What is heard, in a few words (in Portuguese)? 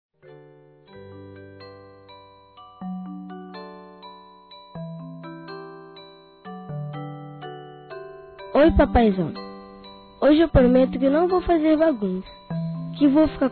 FILHO P PAI INFANTIL, Telemensagens, Dia dos Pais; Telemensagens 2017